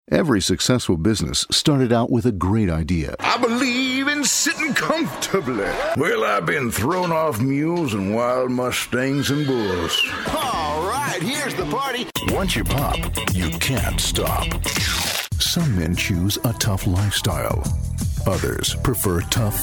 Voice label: monter generic, voice